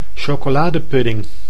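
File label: "chocoladepudding", Dutch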